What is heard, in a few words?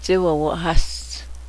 pinto, cheyenne language